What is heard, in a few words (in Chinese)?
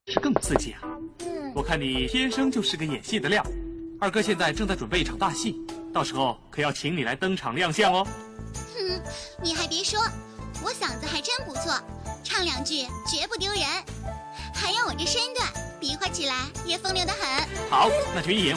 电视剧配音作品